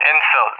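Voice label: "Enfield", English, RUSSIA; Allied Flight; CallSign; DCS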